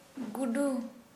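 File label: Santali female rat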